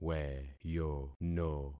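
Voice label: "weyono", concatenated syllables; Affixing experiment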